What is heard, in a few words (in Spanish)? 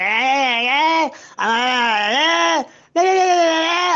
ayayayaay audio ininteligible